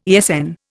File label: "ESN", Indian